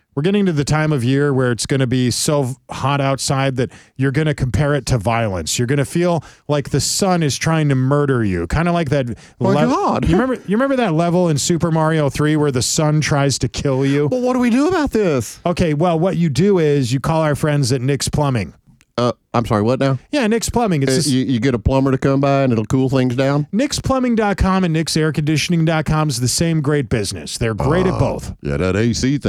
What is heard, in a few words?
RADIO AD; nicks plumbing radio ads